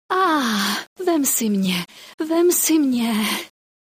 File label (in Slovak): sms hlásky cz